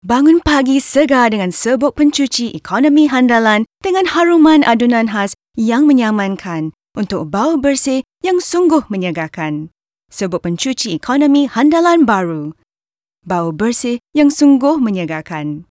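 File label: commercial voice over in Malay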